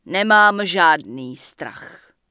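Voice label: Night Elf Female error no energy, Czech; wowpreklad; czwow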